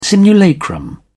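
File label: "simulacrum", British English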